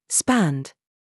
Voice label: DRESS TRAP, minimal pairs